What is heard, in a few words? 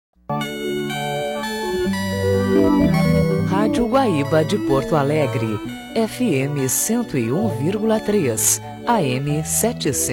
Radio FM AM; Radio Guaiba Porto Alegre RS